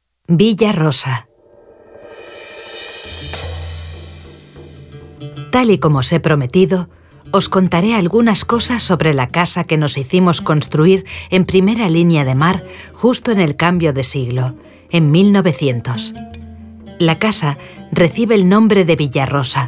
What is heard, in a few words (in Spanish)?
audioguia esp